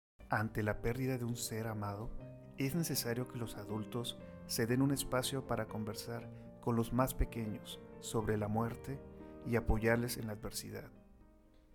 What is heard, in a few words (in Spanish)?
voz emotiva; locutor